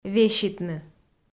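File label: "веситны", Komi-Zyrian, female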